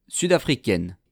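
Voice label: "sud-africaine", French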